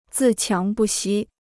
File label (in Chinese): "自强不息", Chinese